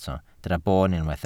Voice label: "boon", Welsh